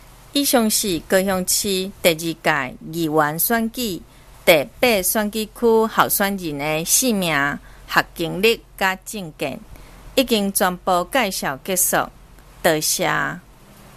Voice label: 台語; 高雄市; 高雄市市長議員有聲選舉公報; 第八選區

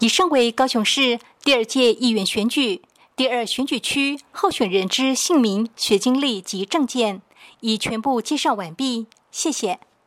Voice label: 國語, 有聲選舉公報; 第二選區

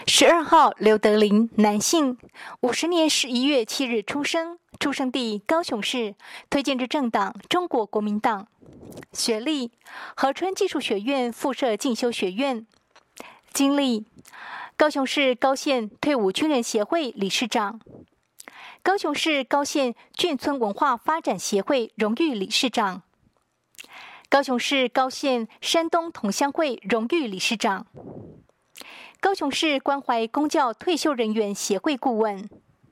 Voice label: 國語, 有聲選舉公報; 高雄市; 高雄市市長議員有聲選舉公報; 第九選區

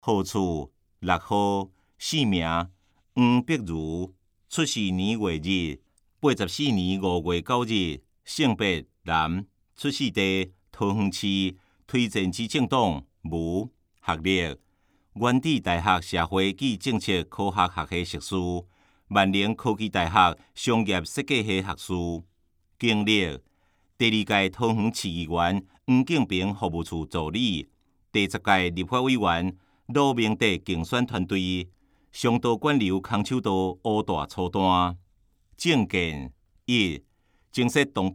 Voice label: 台語; 有聲公報; 市議員

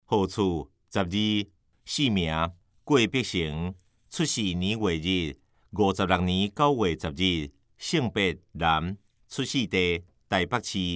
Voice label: 臺語; 新北市; 有聲公報; 市議員; 第5選區

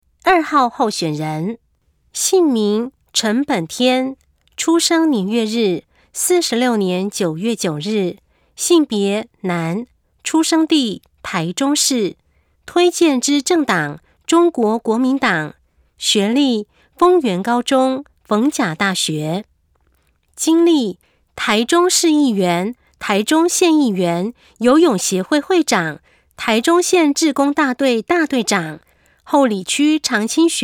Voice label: 有聲公報, 國語; 臺中市; 市議員; 第04選區

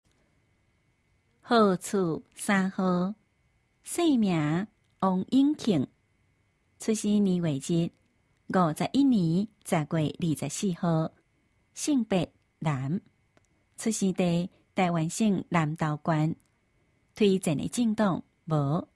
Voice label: 台語; 南投縣; 有聲公報; 縣長

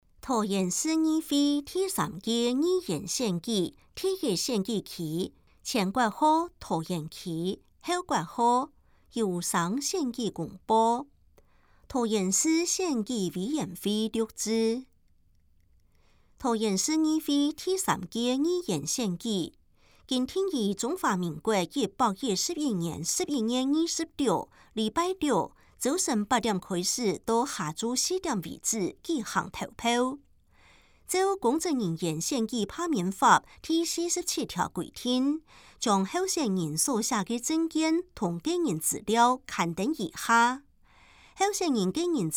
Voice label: 前言, 客語; 有聲公報; 市議員

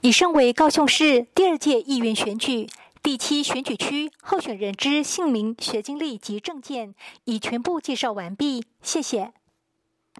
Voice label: 國語, 有聲選舉公報; 高雄市; 高雄市市長議員有聲選舉公報; 第七選區

